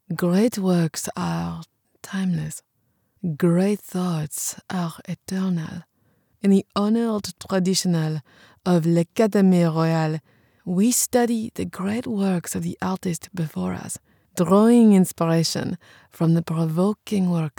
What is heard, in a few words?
english international impersonations